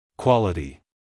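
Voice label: "quality", American English male; everyone-can-use-english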